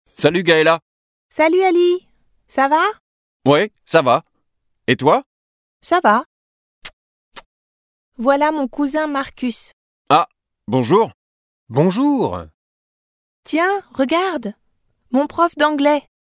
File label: learning program